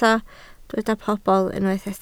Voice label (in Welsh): "pobl", Welsh